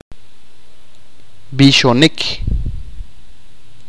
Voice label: sing, achi